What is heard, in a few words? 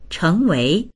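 "cheng2wei2", Mandarin Chinese; chéngwéi; 成为; ～になる、～となる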